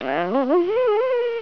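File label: EMBARRAS embarrassed; Zak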